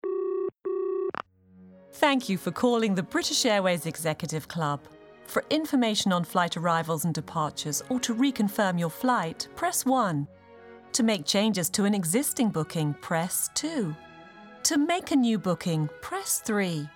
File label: Telephony British Accent